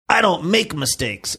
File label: I don't make mistakes gruff